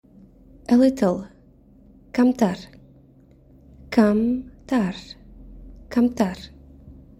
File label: A little, Tajik